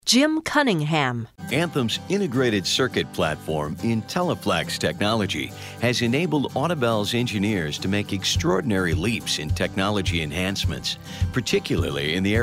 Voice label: Narrative